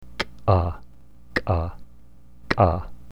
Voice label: ka run, voice qual